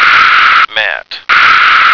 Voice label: "mat", American